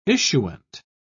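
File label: "issuant", British English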